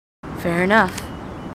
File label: marines female; marine tough; h2dialogue